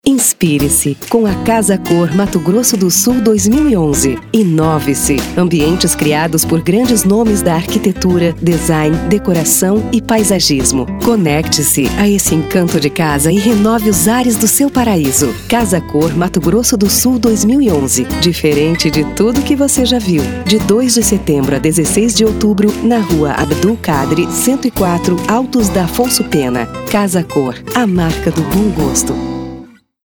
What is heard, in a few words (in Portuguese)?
spot; casacor 2011